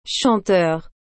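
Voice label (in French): "chanteur", French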